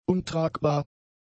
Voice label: "untragbar", German; adjectives